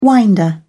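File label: "winder", British English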